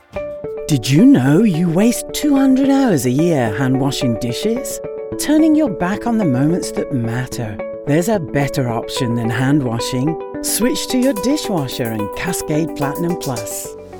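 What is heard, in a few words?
commercial, voiceover; Cascade Platinum Plus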